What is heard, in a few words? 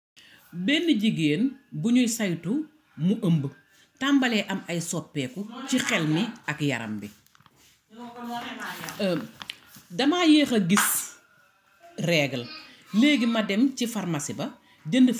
Wolof; icare; guidaaiservizi